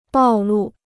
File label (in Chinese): "暴露", Chinese